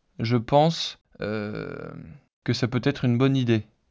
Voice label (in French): hesitation synthese basique